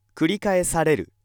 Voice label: male